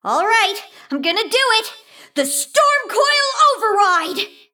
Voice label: Female Mecha Gnome Story Exchange